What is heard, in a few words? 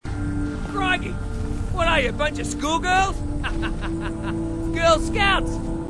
marine, aussie; h3dialogue